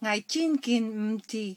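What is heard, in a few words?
kejia, Chinese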